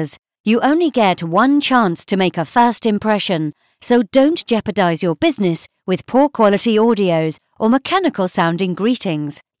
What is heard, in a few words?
female voice